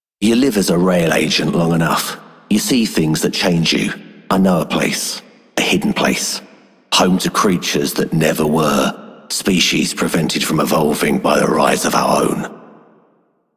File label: Male Pilots, Male One, en; Dialog; Warframe; Solaris Venus